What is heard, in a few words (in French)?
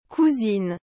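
"cousine", French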